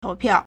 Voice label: "tou2piao4", Chinese

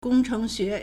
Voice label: "gong1 cheng2 xue2", Chinese

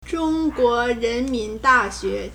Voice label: "zhong1 guo2 ren2 min2 da4 xue2", Chinese

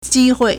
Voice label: "ji1 hui4", Chinese

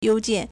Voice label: "you2 jian4", Chinese

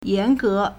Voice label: "yan2ge2", Chinese

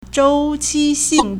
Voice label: "zhou1 qi1 xing4", Chinese

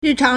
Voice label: "ri4 chang2", Chinese

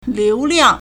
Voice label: "liu2liang4", Chinese

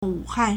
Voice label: "wu3han4", Chinese

